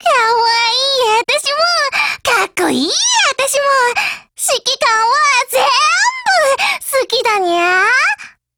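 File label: Japanese dialogue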